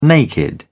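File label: "naked", British English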